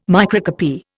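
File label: "microcopy", English, Indian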